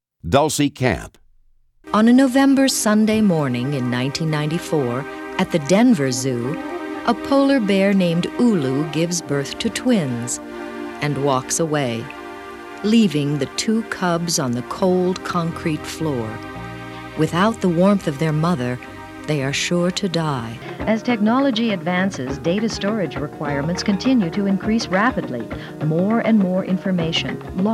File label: narration